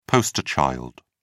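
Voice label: "poster child", British English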